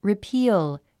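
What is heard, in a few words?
"repeal", English word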